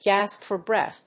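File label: "gasp for breath", English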